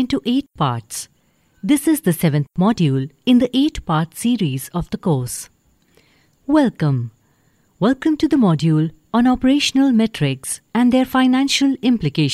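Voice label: Indian e-learning English; engelsk-india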